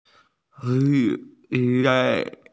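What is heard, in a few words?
"police", Mandarin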